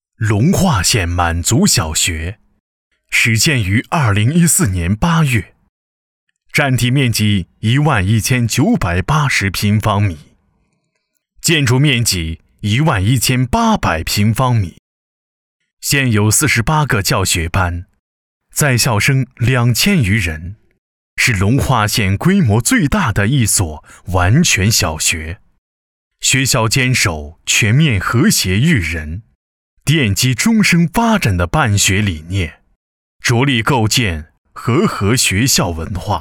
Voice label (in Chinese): 男 稳重大气 宣传片 满族小学; 隆化县满族小学